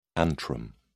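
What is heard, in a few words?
"antrum", British English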